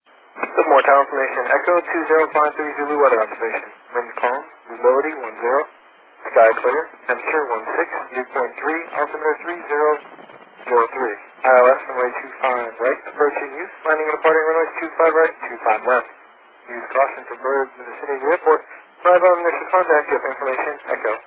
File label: ATIS, USA; LVK